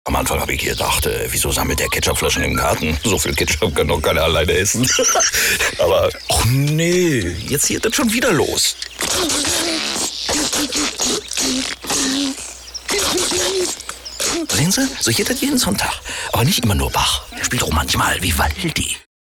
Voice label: Lebendig; Fremdtake

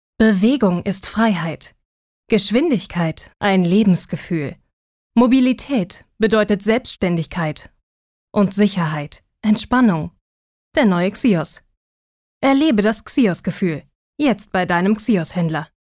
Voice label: Sanft

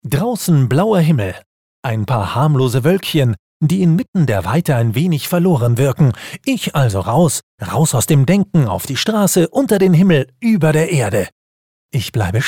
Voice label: Schauspiel Neutral

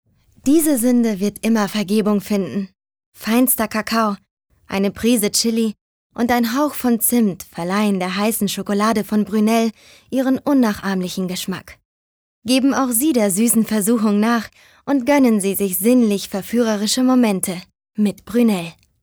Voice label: Sanft

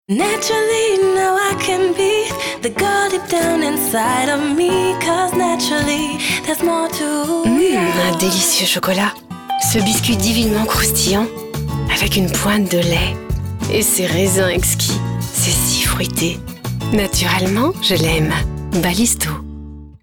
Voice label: FR Werbung Dynamisch

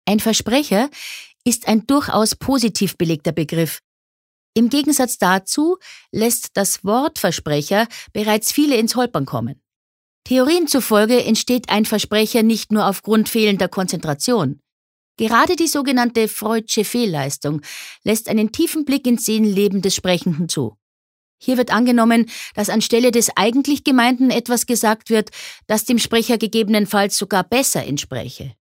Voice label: Versprecher Bayerisch

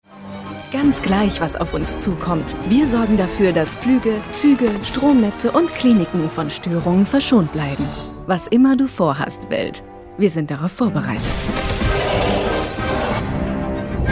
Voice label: Freundlich

